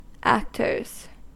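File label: "actors", US English; pronunciation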